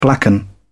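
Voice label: "blacken", British English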